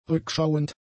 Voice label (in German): "rückschauend", German; adjectives